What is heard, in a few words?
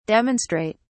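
"demonstrate", British English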